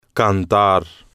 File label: "cantar", spanish